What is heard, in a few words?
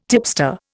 "tipster", Indian